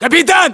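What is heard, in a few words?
rank captain, battlechatter, Russian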